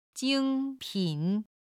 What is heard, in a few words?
"jing1pin3", chinese